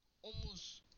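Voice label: "shoulder", Turkish; PictureDictionary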